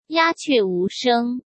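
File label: "鸦雀无声", Mandarin Chinese; hsk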